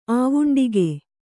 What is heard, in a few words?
"ಆವುಂಡಿಗೆ", kannada